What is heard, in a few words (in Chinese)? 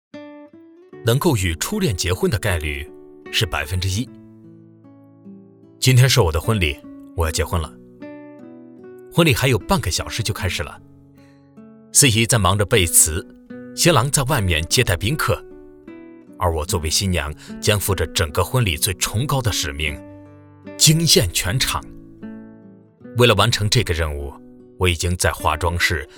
情感文章